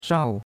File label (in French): "shao4", chinois; Cours-de-Chinois